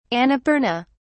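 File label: "annapurna", British English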